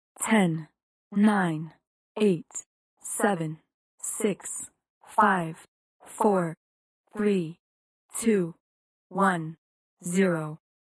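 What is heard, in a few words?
countdown, female voice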